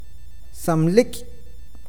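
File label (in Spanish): arena de río, awakateko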